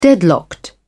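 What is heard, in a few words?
"deadlocked", British English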